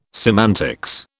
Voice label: "semantics", British